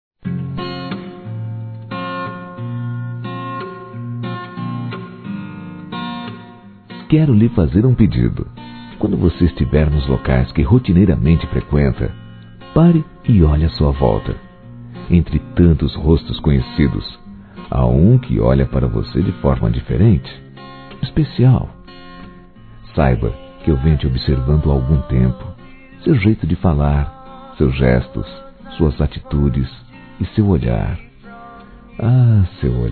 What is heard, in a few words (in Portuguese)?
Paquera Masculino; Telemensagens 2017